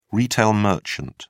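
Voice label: "retail merchant", English, British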